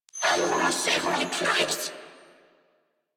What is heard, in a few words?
Patrol, Female; en; Warframe; New War; Narmer Grineer